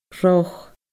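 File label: "roth", Scottish Gaelic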